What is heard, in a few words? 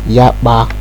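"yakpa", Nigerian Pidgin, male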